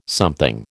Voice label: "something", American